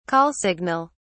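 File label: "call signal", British English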